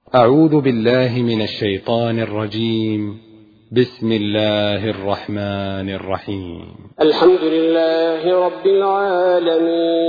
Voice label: ترتیل سوره حمد; الثبیتی

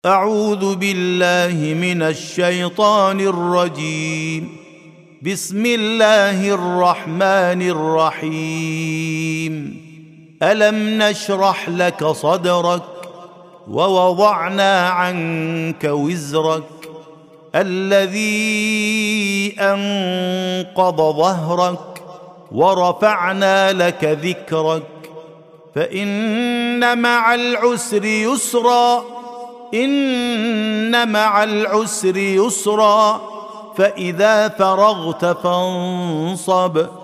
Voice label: ترتیل سوره مبارکه انشراح

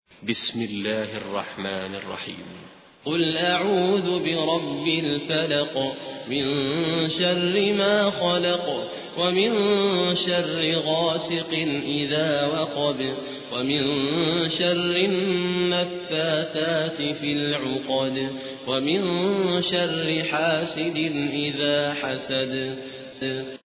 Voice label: ترتیل قاری سودانی; سوره فلق

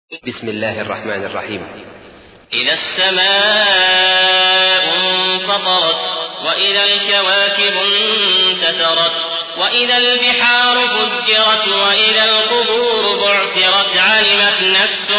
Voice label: ترتیل; عبدالعزیز الأحمد; سوره انفطار

